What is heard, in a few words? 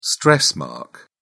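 "stress mark", English, British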